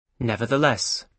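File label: "nevertheless", British English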